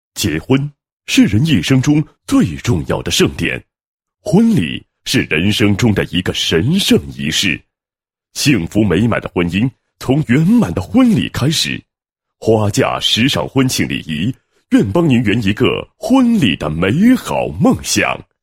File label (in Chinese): 男 宣传广告 结婚广告 婚纱摄影宣传